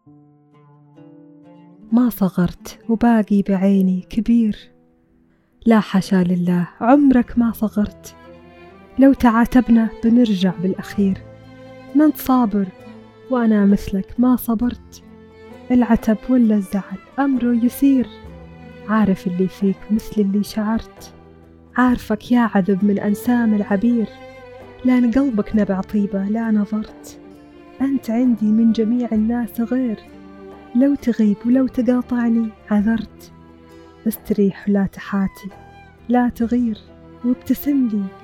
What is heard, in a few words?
Gulf Accent